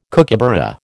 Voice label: "kookaburra", American